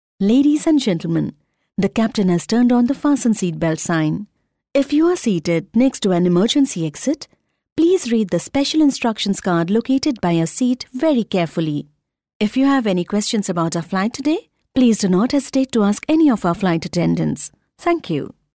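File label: English Indian Female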